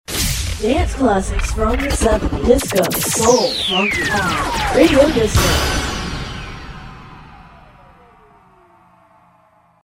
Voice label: Radio Disco